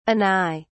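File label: "anigh", British English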